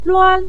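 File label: "luan", Mandarin Chinese neutral tone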